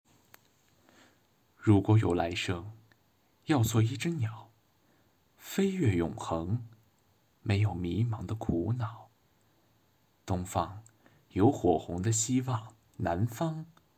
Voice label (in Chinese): 情感类